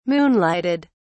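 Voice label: "moonlighted", British English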